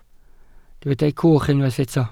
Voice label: "cwch", Welsh

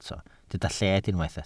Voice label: "lled", welsh

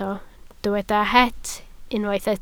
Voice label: "het", Welsh

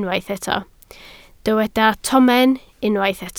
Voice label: "tomen", Welsh

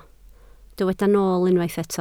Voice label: "nool", Welsh

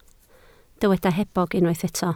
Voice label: "hebog", Welsh

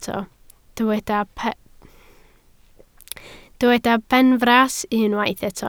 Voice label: "benfras", Welsh

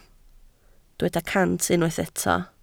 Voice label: "cant", Welsh

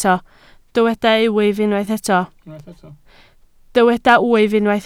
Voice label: "wyf", Welsh

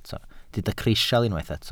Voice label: "crisial", welsh

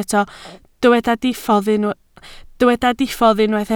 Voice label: "diffodd", Welsh

